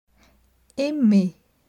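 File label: "Aimer", French; grammaire; les articles definis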